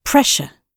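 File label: "pressure", British English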